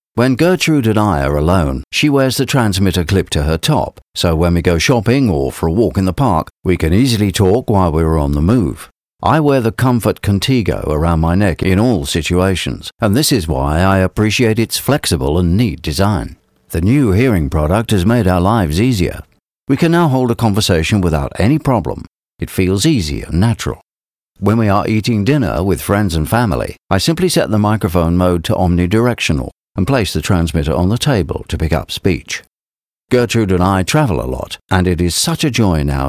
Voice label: Informationfilm

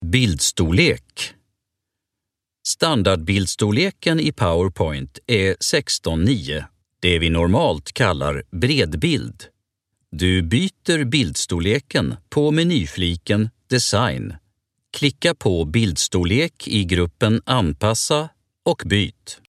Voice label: Swedish e-learning

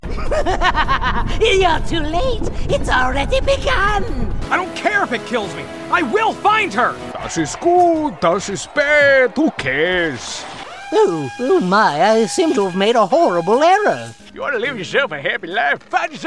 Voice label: Character Voice Over

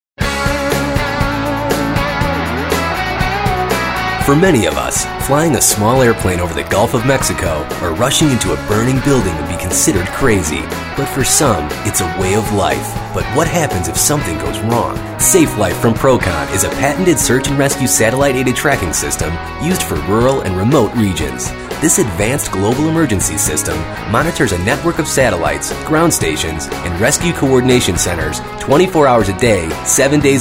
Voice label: Product Narration; SAFELIFE

